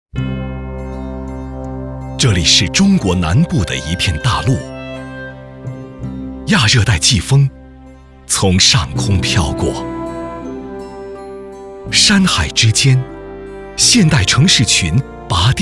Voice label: 样音 饱满 大气 纪录片 片头; 建党百年; 山乡巨变

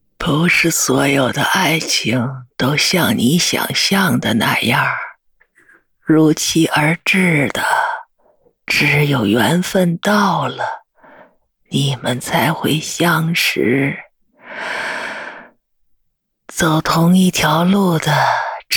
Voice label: 苍老温暖的老奶奶

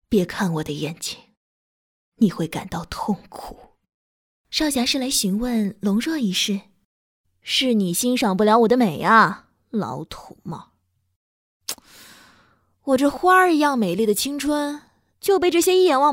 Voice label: 配音声线展示御姐

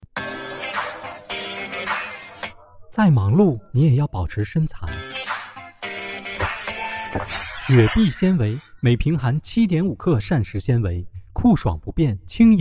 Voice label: 饮料广告

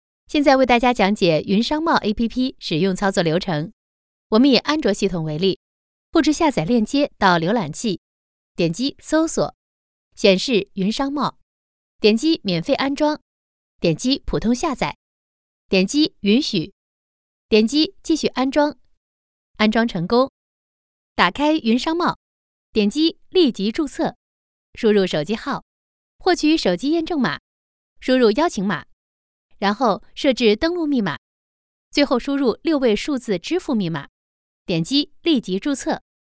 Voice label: 讲解 安装 操作

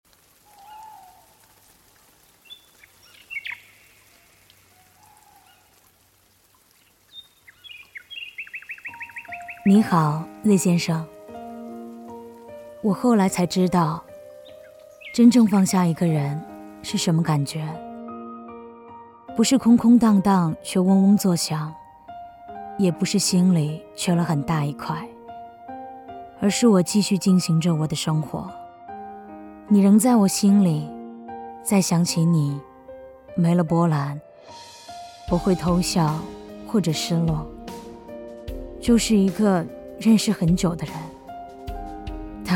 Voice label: 独白; 为你写信

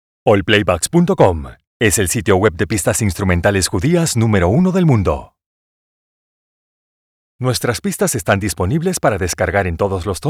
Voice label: 西班牙语

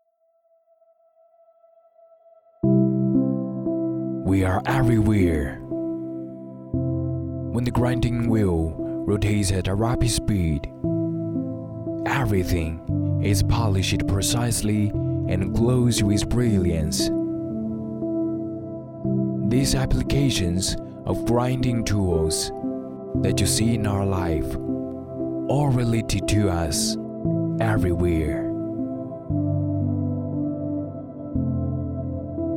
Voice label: 英文男

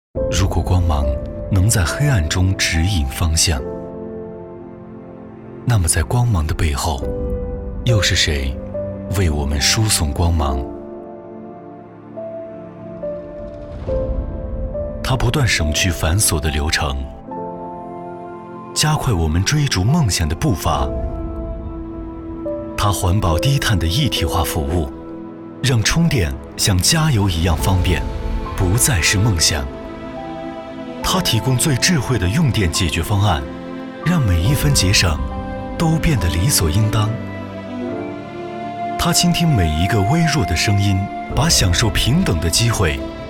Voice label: 宣传走心国网电力

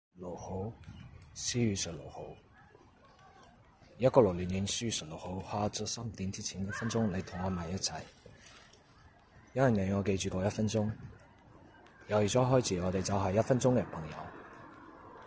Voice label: 粤语配音作品

